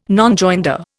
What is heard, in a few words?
"Nonjoinder", Indian